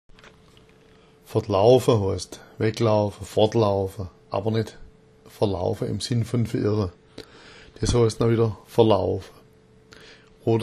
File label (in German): "vertlaufa", schwaebisch